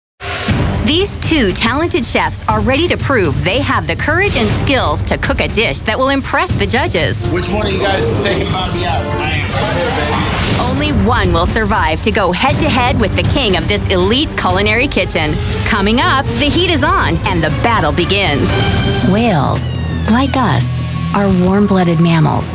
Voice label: women narration

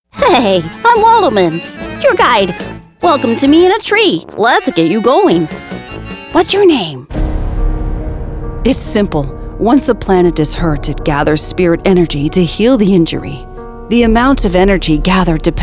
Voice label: women animation

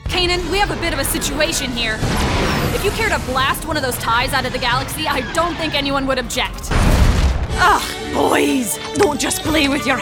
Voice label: women animation

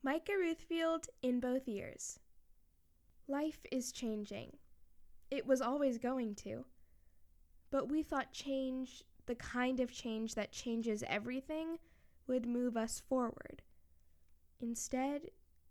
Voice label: kids commercial

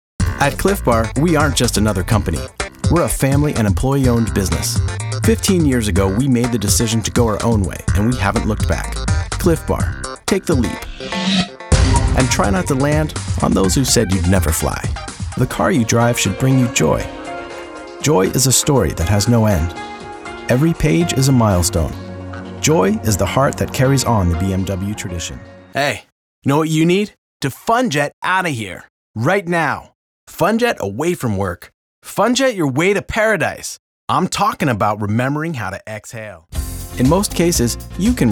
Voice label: men commercial

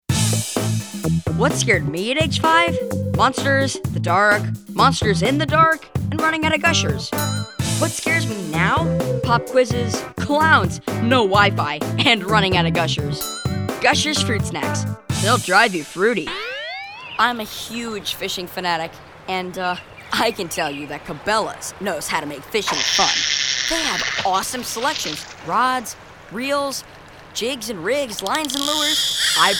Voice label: kids commercial

